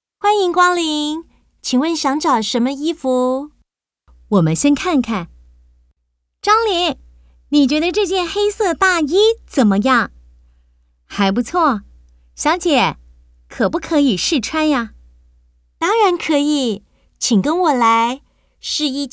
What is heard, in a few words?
Dialogue, Chinese